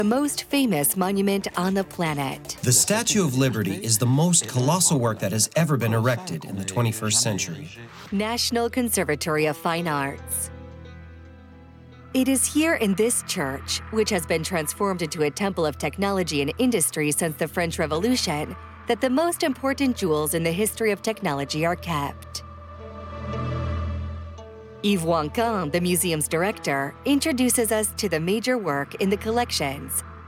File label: english american documentaries; professional North American woman voice over talent